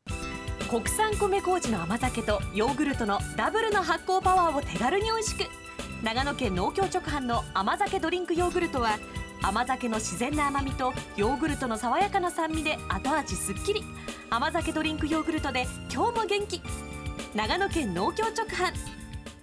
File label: radio; amazake